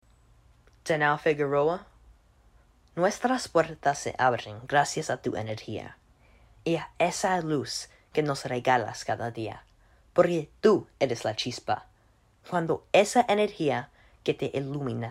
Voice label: SPANISH